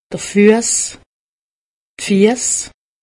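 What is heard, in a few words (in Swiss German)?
"fuss", Haut Rhin; medecine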